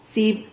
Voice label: "cib", Silabas

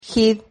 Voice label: "gid", Silabas

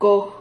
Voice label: "coj", Silabas

